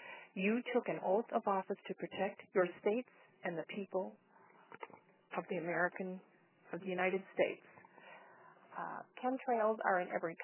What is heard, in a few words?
voice message